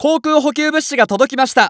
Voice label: Announce Air Supply Drop; Eternal Return